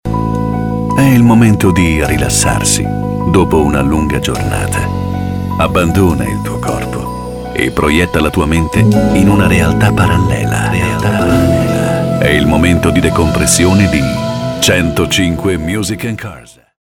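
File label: Italian, male, commercial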